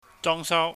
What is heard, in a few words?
"jongsau", Cantonese